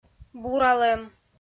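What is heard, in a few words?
"буралӧм", Komi, female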